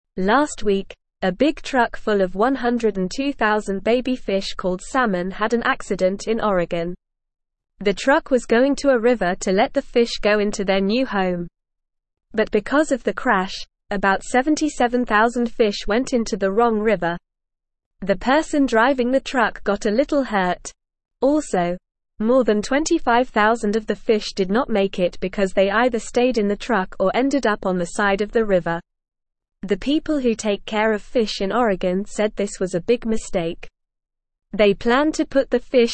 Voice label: English Beginner NORMAL Reading; English Newsroom; Big Truck Accident Sends Baby Fish to Wrong River